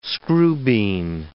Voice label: "screwbean", English